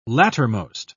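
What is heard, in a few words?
"lattermost", British English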